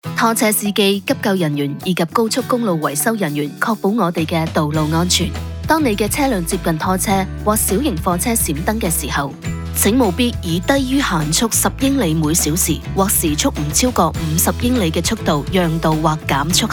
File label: Move Over Slow Down Generic Radio Cantonese